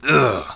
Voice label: wounded, bodyguard